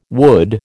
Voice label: "wood", American